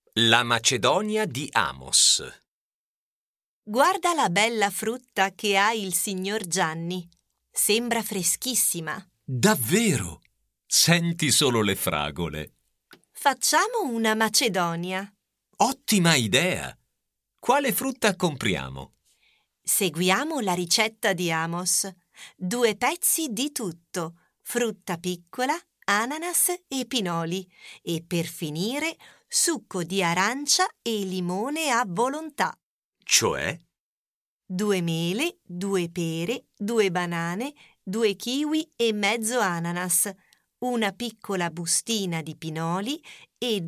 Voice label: Italian Slow Dialogue